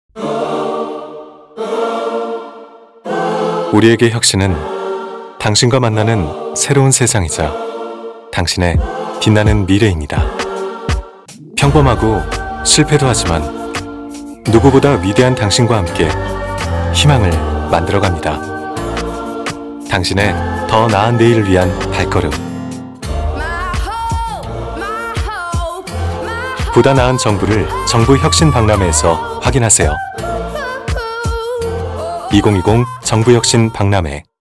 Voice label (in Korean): 홍보; 정부혁신박람회